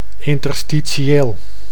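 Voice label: "interstitieel", Dutch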